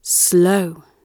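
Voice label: "slow", British English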